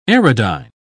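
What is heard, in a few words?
"aerodyne", British English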